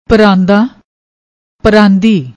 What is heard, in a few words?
"praanda", Punjabi